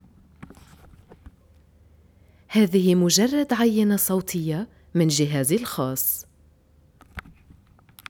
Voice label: arabic